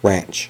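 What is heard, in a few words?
"ranch", American English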